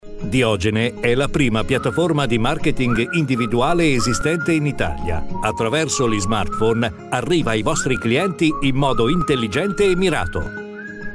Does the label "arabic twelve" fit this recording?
No